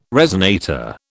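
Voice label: "resonator", British English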